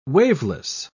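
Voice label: "waveless", British English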